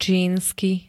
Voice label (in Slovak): "čínsky", slovenské